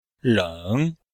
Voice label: "leng3", chinois; Cours-de-Chinois